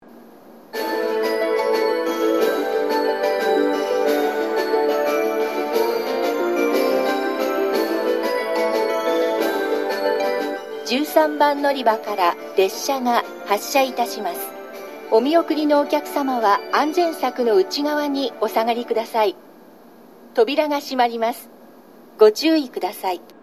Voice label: 13番のりば発車メロディ＋発車予告放送; shin minamata

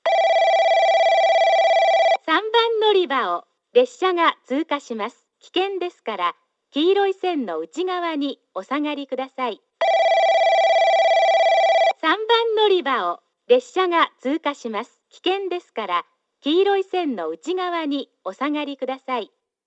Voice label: 3番のりば通過放送 女声

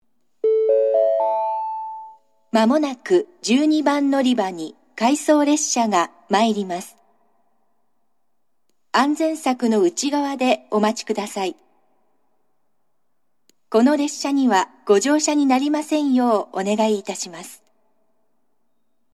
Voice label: 12番のりば接近予告放送（回送列車）; shin omura; 列車がホームに差し掛かったら放送が流れます。

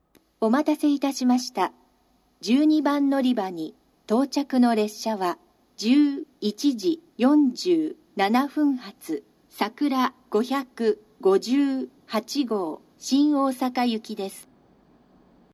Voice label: 12番のりば接近放送, 新幹線ホーム; 新鳥栖; さくら558号 新大阪行き

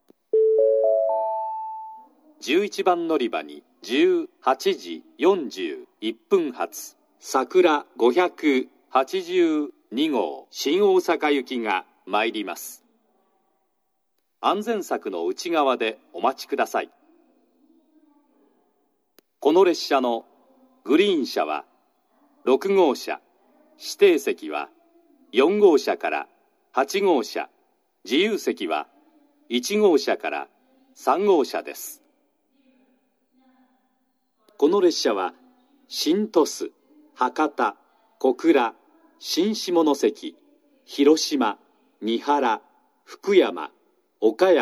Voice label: 11番のりば予告放送（さくら582号 新大阪行き）※三原停車, 久留米（新幹線ホーム）

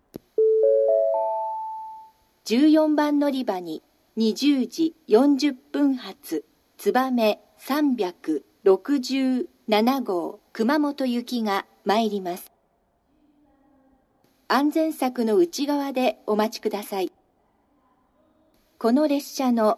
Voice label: 14番のりば予告放送, 新幹線ホーム; 新鳥栖; つばめ367号 熊本行き

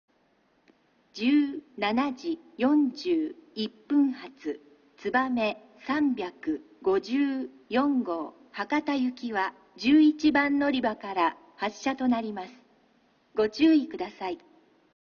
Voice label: 新鳥栖駅 のりば変更放送（つばめ354号 博多行き）; 九州新幹線SIRIUS（シリウス）放送

